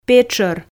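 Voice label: "петшор", Komi, female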